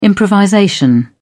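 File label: "improvisation", British English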